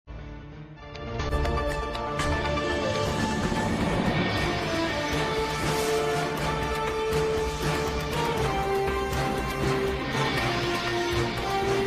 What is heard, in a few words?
Voice Channel Two News